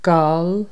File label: "foreigner", Irish